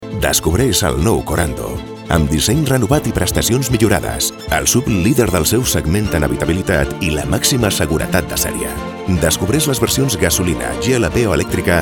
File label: RADIO, Catalan; KORANDO